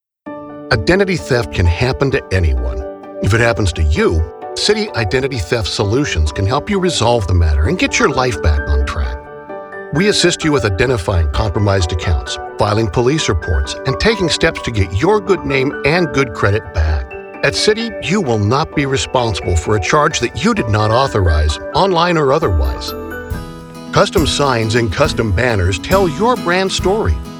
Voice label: CORPORATE

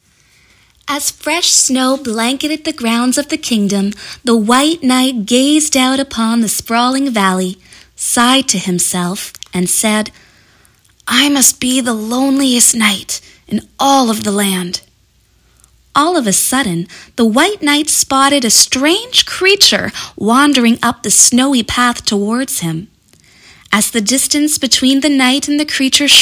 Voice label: Fairy tale reading

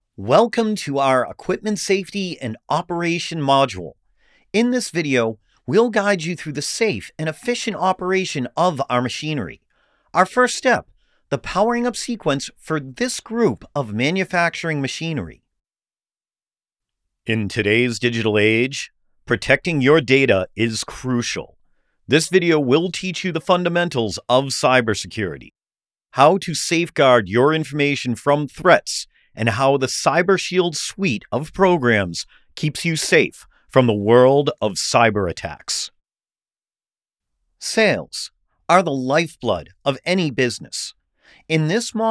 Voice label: E-learning

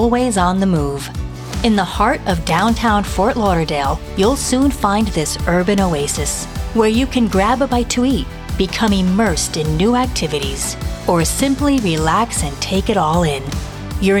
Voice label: Park PSA; Huizenga Park PSA

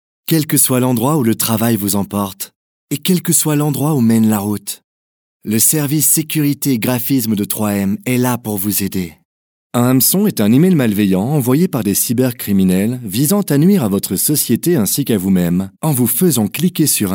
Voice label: VO Corporate Elearning Medley

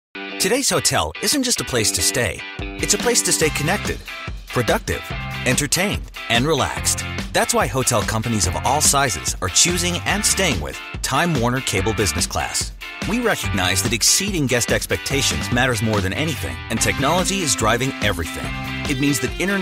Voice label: NARRATION

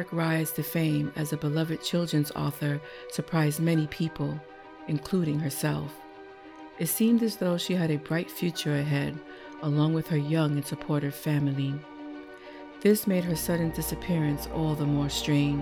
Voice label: Crime Podcast

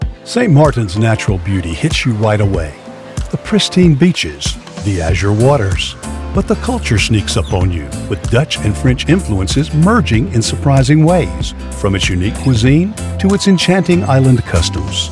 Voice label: Travel Promo; St. Maarten

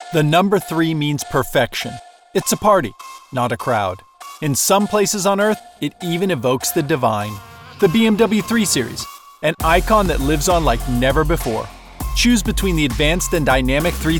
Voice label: Ad VO; BMW

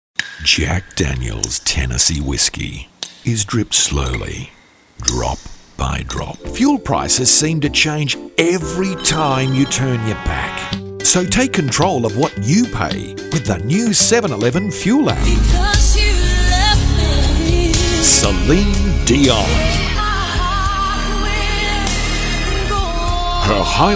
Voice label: Voice Actor

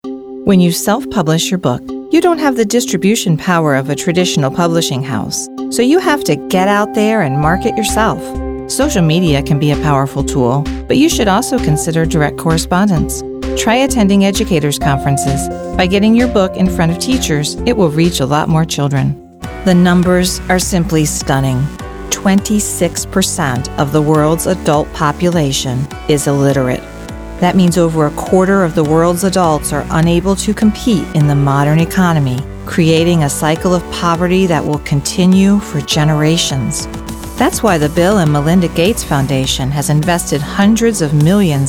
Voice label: Narrative

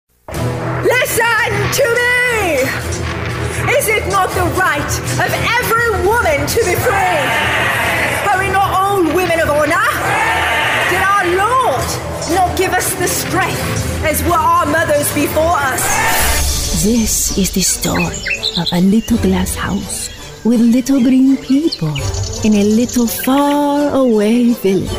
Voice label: character demo

